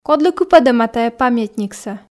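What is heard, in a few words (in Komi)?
"кодлы кыпӧдӧма тайӧ памятниксӧ", Komi, female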